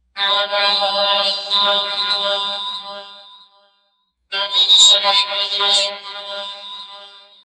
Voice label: childlike robotic voice